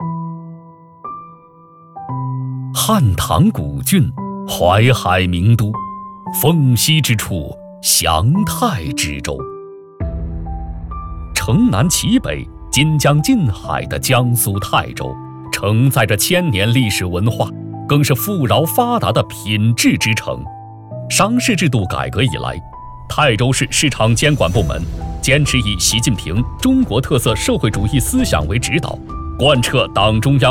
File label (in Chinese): 男 汇报工作 正能, 磁性大气; 市场监管年报公示工作汇报